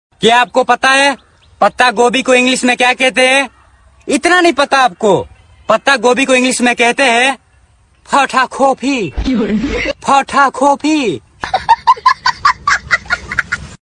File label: Funny